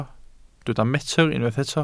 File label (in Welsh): "metr", Welsh